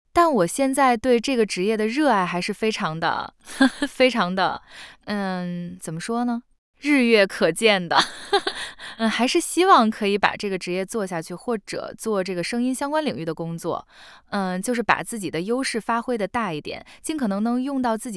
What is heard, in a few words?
conversation